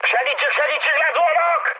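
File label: Hungarian aircraft destroyed mood high, speech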